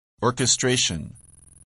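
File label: "orchestration", English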